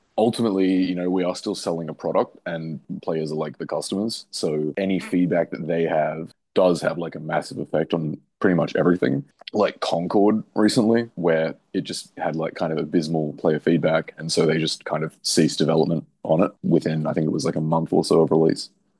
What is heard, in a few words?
Interview audience reception